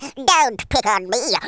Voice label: "Go away", Wacky

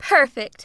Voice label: "Perfect", Smooth Babe; Worms Armageddon

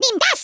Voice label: DRAGON PUNCH, Speech German; Worms Armageddon

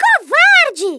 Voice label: coward, Speech Portuguese; Worms Armageddon

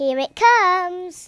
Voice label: drop, Speech Kidz; Worms Armageddon

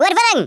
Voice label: "VICTORY", Africaan, Speech; Worms Armageddon

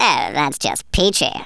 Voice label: "drop", Speech; Worms Armageddon

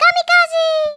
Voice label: kamikaze, Speech, Greek; Worms Armageddon

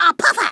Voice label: "perfect", Kamikaze; Worms Armageddon

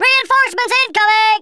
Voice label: "drop", Drill Sergeant; Worms Armageddon

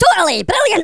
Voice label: "BRILLIANT", Angry Scots; Worms Armageddon

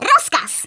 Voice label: YES SIR, Speech, Polish; Worms Armageddon

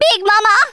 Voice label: incoming, Speech Rasta

